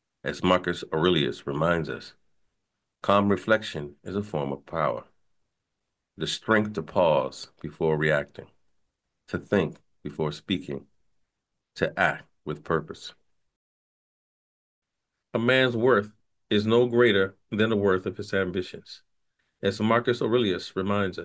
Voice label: audiobook; demo reel; Audio Book Narration